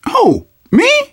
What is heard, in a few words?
tank in train yard, manager, survivor, voice; Left 4 Dead 2; left4dead2 dlc2